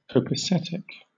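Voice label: "copacetic", British English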